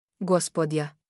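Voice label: "gospoda", Serbian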